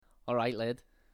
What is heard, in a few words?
scouse